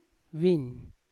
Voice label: "vin" 3sg 2sg, Yawa